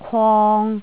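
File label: "kwong3", Jyutping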